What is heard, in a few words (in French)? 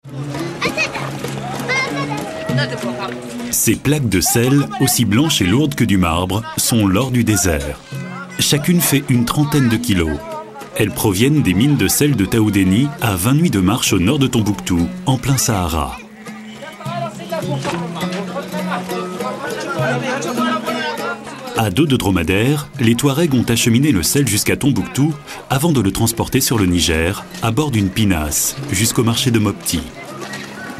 NARRATION DOCUMENTAIRE; Tombouctou